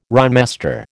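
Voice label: "rhymester", American English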